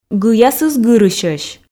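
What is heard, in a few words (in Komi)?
"гыясыс гырысьӧсь", Komi, female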